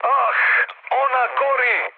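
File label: Serbian on fire mood med, speech; War Thunder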